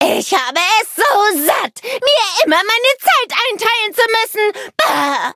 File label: loading, de; cookie run